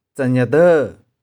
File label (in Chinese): "tseh4nih4deu1", 玉環